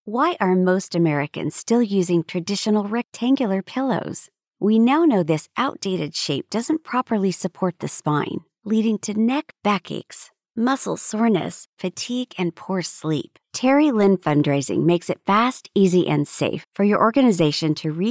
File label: female US; lektoring